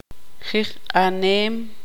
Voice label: tzutujil; respirar